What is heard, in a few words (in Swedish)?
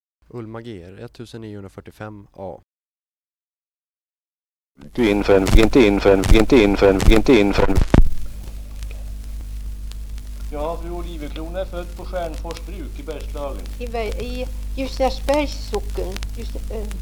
Uppsala, dialekter; Svenska sagor; Folkminnen